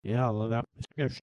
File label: reverse speech